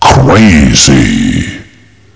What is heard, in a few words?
kill, woman